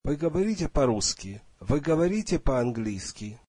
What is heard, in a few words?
russian Do you speak Russian